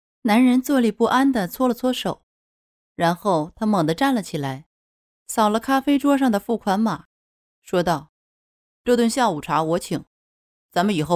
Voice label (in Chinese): 干音